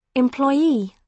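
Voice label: "employee", British English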